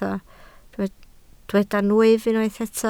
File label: "nwyf", Welsh